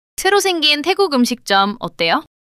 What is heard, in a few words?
neutral, monologue